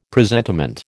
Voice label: "presentiment", American